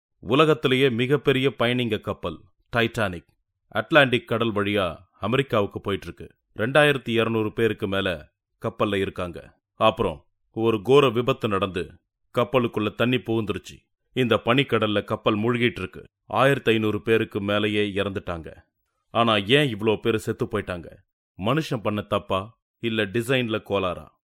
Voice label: male tamil voice over talent narrative